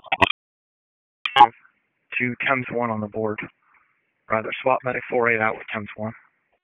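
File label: EMS DISP, radio; wake; p25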